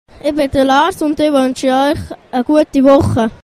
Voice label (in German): offenes mikrofon